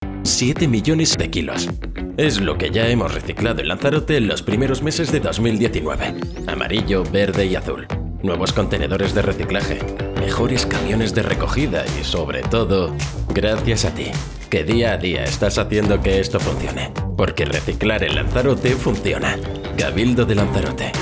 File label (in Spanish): CUÑA